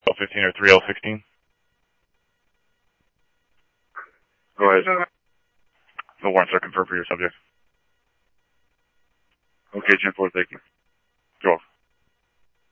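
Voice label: police department, scanner audio; SCTSDLE PD - PD2; ScottsdalePolice; ThompsonPeakHigh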